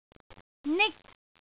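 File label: "nik1", Cantonese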